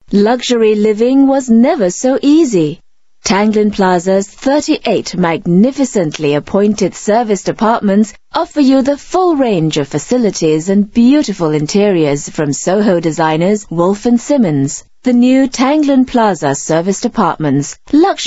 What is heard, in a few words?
Asian English Voice Sample, Singaporean Female